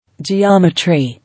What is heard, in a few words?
"Geometry", English